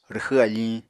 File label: "rwxw afiñ", Apatani